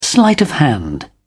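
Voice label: "sleight of hand", British English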